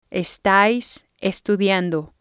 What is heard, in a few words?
"estáis estudiando", Spanish